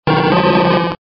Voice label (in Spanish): Grito